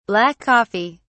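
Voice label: "black coffee", British English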